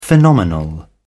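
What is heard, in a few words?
"phenomenal", British English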